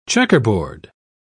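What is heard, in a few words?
"checkerboard", British English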